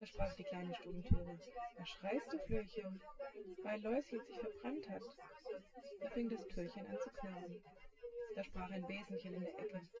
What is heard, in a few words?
female synth conv 130ms 1m; SiSEC08; SiSEC underdetermined